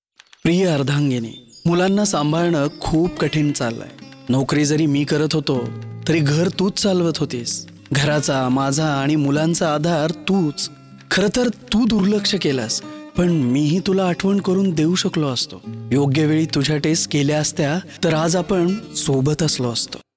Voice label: Marathi M Commercial